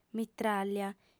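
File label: "mitràglia", female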